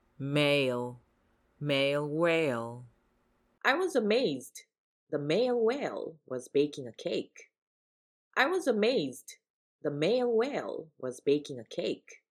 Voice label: male